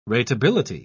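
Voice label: "ratability", British English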